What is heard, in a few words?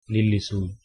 stop, Kannada